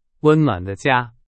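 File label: Chinese, example sentence; hsk3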